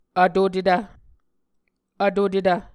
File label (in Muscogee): "vtotetv", Muscogee